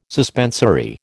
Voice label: "suspensory", American